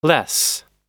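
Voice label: "less", American English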